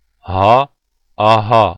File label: voiced glottal fricative, phoneme